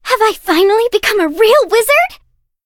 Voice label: touch, en; cookie run